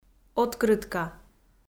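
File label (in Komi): "открытка", Komi, female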